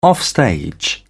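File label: "offstage", British English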